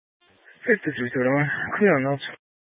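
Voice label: sheriff dispatch radio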